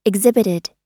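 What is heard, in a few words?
"exhibited", US English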